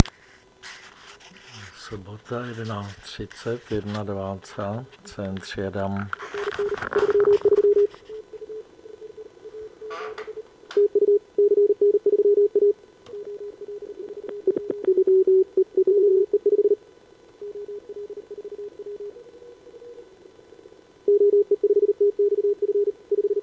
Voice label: QSO 15m; CQWWCW2025